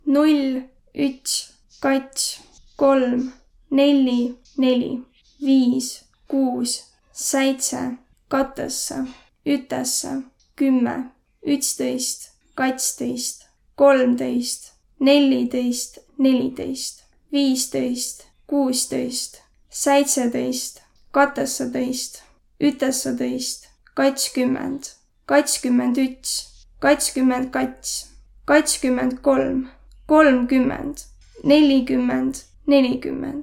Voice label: numbers, voro

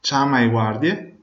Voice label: police, Venetian

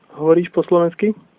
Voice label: do you speak, slovak

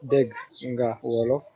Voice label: doyouspeak, wolof; Deg nga Wolof?

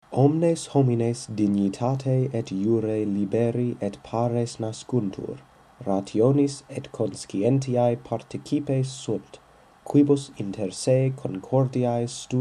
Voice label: udhr latin classical

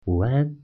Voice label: "good afternoon", Mandarin

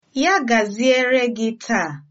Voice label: have a nice day, igbo

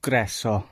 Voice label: welcome, gwentian

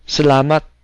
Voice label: thanks, cebuano

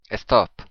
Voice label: stop, occitan

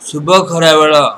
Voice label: good afternoon odia

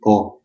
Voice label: yes, albanian gheg

